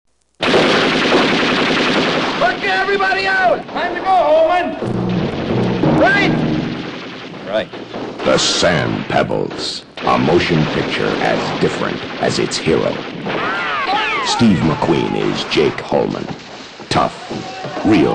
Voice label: radio ads